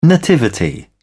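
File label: "nativity", British English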